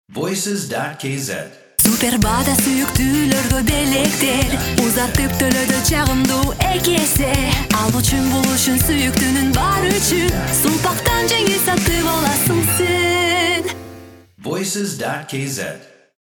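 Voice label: Kyrgyz vocal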